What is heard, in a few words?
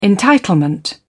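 "entitlement", English, British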